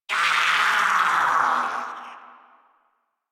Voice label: Death, Battle, Barks, en; Warframe; Grineer Wolf Gangster

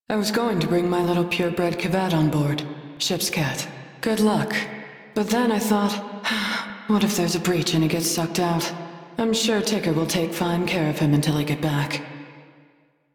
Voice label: Crew Idle, Female; Dialog; en; Warframe; Railjack Crew; New Loka

